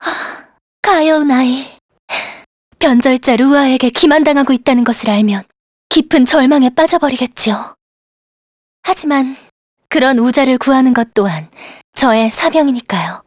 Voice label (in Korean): vox dia, kr; heroes; kingsraid